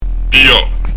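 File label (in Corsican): "piglia", Corsican; pij'a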